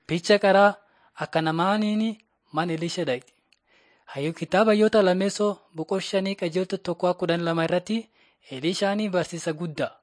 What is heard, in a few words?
borana sakuye; laali chaqasi jiradin 5 jilalacha waaqat; on trial for god